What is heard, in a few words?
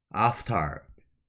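"after", Japanese, male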